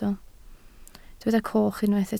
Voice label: "coch", Welsh